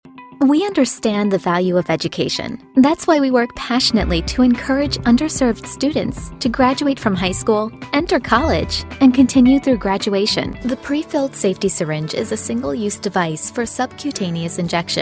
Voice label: Narration eLearning Explainer, Business, Corporate